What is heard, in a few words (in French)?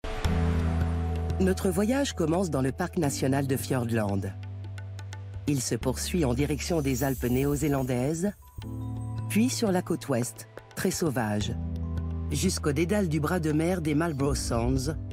Narration; Nouvelle Zelande